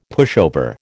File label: "pushover", American